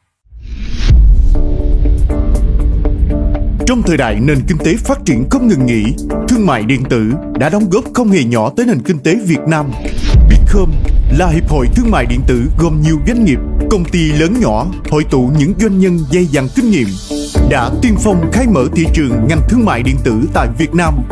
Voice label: South Male, South Vietnamese; Beecom